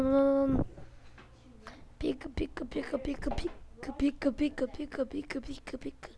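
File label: "pick", spoken word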